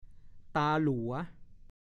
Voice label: "ตาหลัว", ภาษาโคราช; ตาหลัว / 1. ตาน้ำในป่าไม้รวก

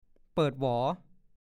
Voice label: "เปิดเปิง", ภาษาโคราช; แตกหนีไป แตกกระเจิง

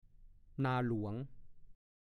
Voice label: "นาวาน", ภาษาโคราช; 1. นาที่ทำด้วยการไหว้วานญาติพี่น้อง หรือเพื่อนบ้านทำโดยไม่มีค่าจ้าง

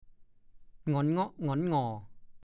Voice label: "หง็อนเงาะหง็อนหง่อ", ภาษาโคราช; ดูคำว่า หง็อนหง่อ

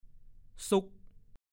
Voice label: "ซุ่ก", ภาษาโคราช; 1. ซ่อนแอบ เรียกเล่นซ่อนหาว่า เหล่นซุ่ก

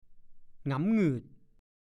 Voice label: "หงำหงืด", ภาษาโคราช; บ่นพึมพำ

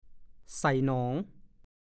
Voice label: "ใส่หนอง", ภาษาโคราช

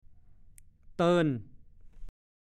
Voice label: "เติน", ภาษาโคราช; 1. ละเมอพูด

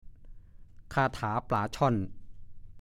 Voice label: "คาถาปล๋าช่อน", ภาษาโคราช

